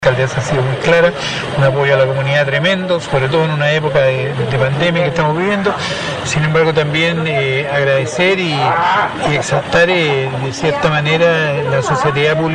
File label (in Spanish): cuña; gobernador Iván Cisternas por donacion ambulancia